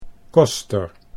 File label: "koster", Dutch